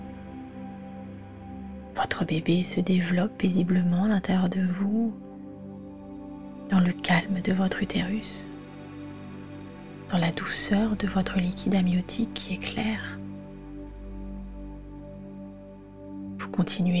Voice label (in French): seance avec musique